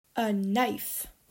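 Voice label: "A knife", English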